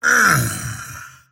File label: pain; slyrak; dota; dragon knight